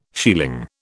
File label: "shieling", British